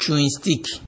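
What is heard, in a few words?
"chewing stick", Nigerian Pidgin, male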